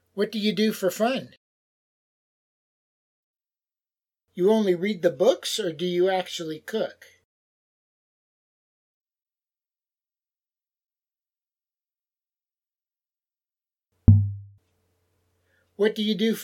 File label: Dialog; Lesson 3